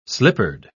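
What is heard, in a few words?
"slippered", British English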